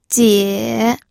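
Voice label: "jie3", Mandarin Chinese third tone; pinyin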